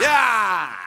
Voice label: "ya", Voice